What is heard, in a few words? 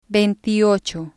"veinteocho", Spanish